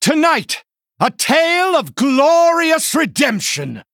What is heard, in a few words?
Male Human